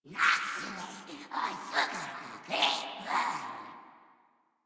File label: en, Dialog Barks Battle; Patrol; Warframe; Grineer Wolf Gangster